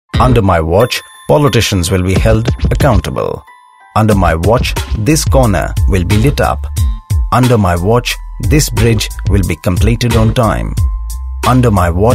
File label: English, male voice